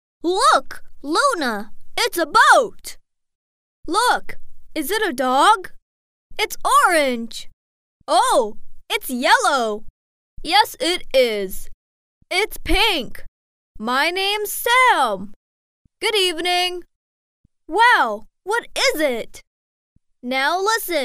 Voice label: 外籍女英 外语 美式英语 真男童 教材

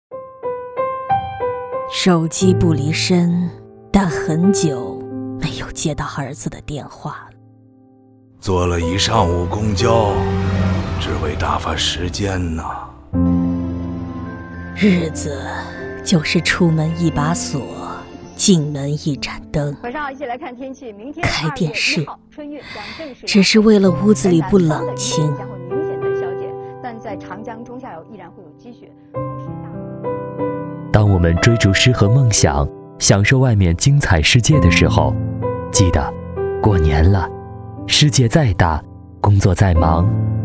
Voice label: 动画 老人 老妇人

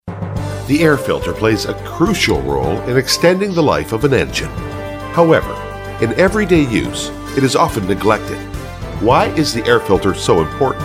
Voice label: 外籍男英 外语 美式英语 英文

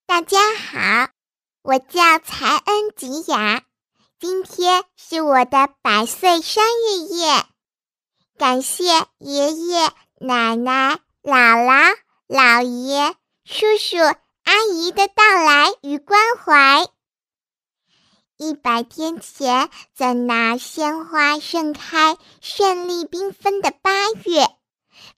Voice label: 动画 童声 超萌百岁宝宝奶声奶气

